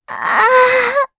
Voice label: Vox Dead, jp; Luna; kings raid

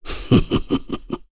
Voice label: Happy; KaselB; kingsraid; voices heroes

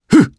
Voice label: Vox Attack jp; Roman; kingsraid audio

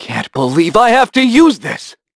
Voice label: Vox Skill, en; kingsraid

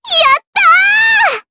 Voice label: Vox Happy, jp; Annette; kingsraid

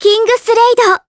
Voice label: Vox, jp; Kirze; Kingsraid

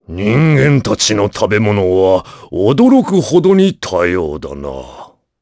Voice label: vox dia jp; Kaulah; kings raid

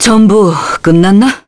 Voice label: Victory, kr; Pavel; kingsraid

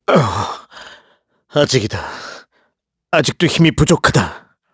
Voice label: Dead, kr; voices; heroes; KaselB; kingsraid

